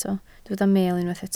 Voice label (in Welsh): "meel", Welsh